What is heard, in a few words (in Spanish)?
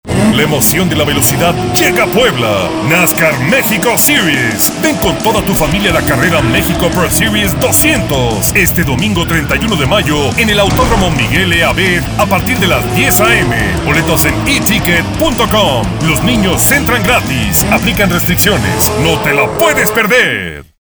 Radio NASCAR; PBL 2015